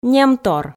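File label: "немтор", Komi, female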